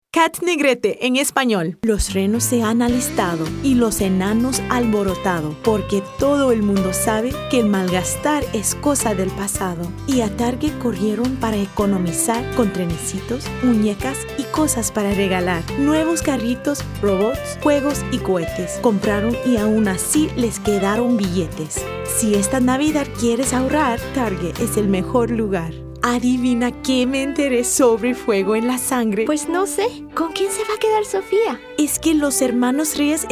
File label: Commercial Spanish